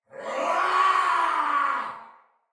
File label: Battle Cry Soldier Male; Barks; Dialog